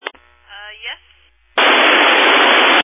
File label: Family Radio Service (FRS)